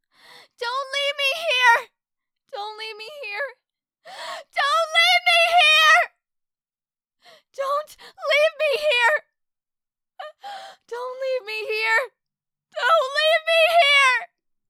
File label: Scared; Don't Leave Me Here